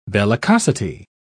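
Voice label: "bellicosity", British English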